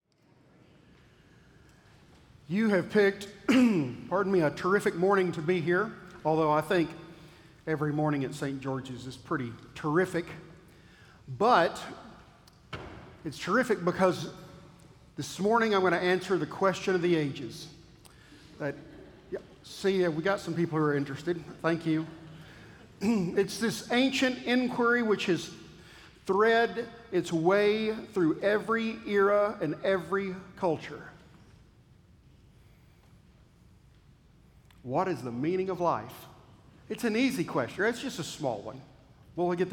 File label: Sermon